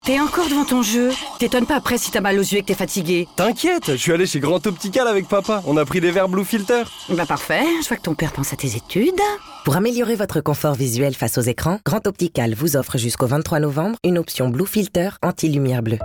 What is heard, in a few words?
radio; GRAND OPTICAL; BLUE FILTER